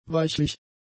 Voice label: "weichlich", German; adjectives

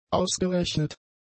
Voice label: "ausgerechnet", German; adjectives

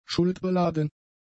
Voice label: "schuldbeladen", German; adjectives

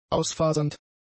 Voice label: "ausfasernd", German; adjectives

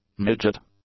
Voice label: "midget", English; nouns

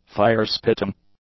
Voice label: "fire-spitting", english; adjectives

